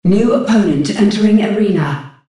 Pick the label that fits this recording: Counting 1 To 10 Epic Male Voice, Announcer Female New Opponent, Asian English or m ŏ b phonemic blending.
Announcer Female New Opponent